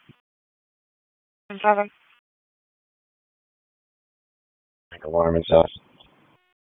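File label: Police Southwest District Dispatch; Raleigh; wake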